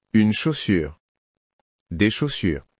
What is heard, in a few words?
shoe in french